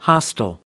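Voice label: "hostile", American English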